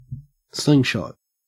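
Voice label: "slingshot", Australian English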